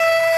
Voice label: "noa" E6